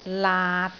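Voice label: "laat6", Cantonese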